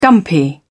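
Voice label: "dumpy", British English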